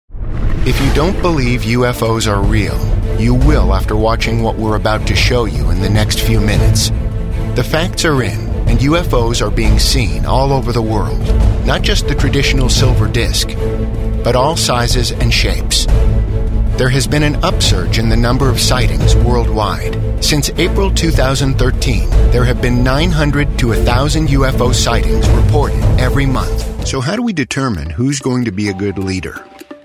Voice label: Narration Documentary Reality